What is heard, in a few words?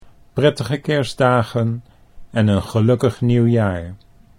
"prettige kerstdagen en een gelukkig nieuwjaar", Dutch